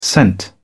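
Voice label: "sent", British English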